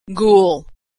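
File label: "ghoul", American English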